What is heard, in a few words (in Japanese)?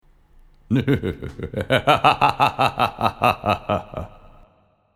魔王の声; 魔王の笑い声[2]